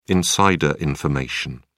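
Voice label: "insider information", British English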